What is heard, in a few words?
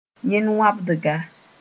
"yẽn-wãbdga", Mooré